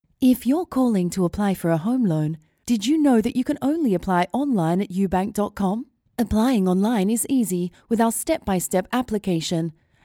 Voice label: British Neutral English; UBank; LOFT VOICES

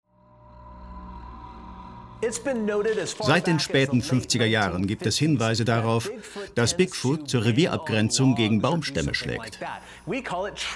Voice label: Voice Over

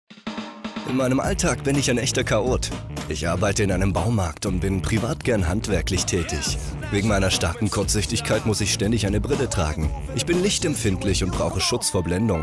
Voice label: Voice Over E Learning; LOFT VOICES

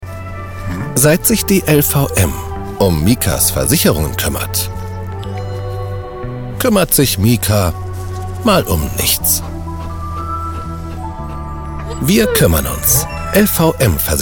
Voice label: Commercial TV Brandvoice; LVM

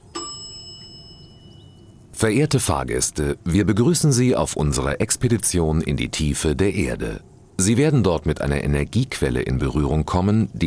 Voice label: lift announcement; viaje enbw welcome; geothermie

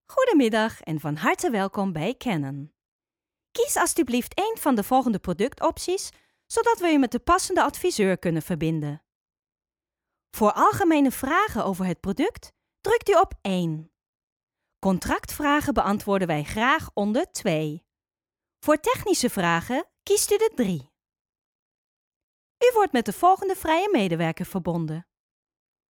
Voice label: nl; hamburg

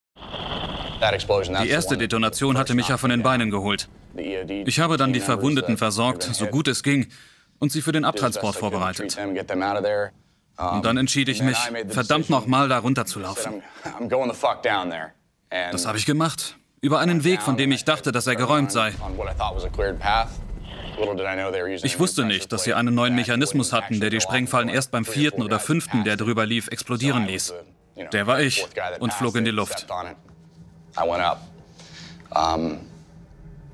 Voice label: Voice Over Monolog

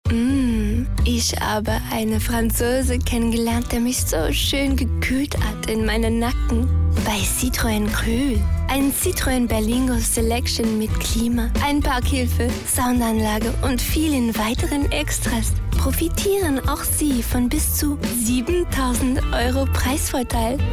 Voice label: german with french accent; citroen

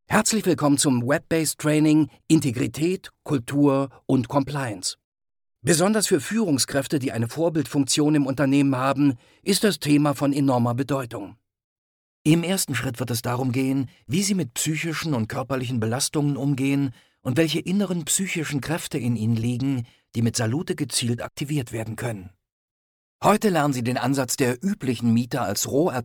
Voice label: E-LEARNING TUTORIAL; LOFT VOICES

